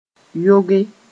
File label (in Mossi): "yoge", moore